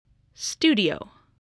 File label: "studio", spelling